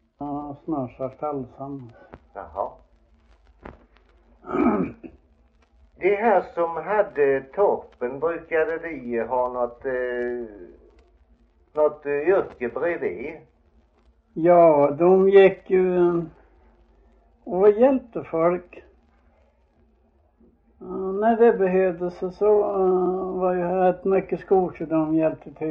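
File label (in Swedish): Frillesås, Swedish dialect; svenska sagor; Folkminnen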